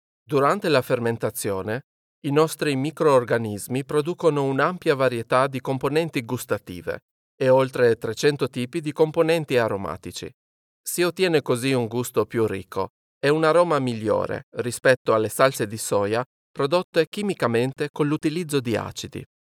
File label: Italian commercial